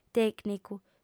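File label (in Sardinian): "tècnicu", female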